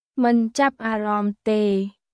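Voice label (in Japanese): クメール語（カンボジア語）の日常会話基本フレーズ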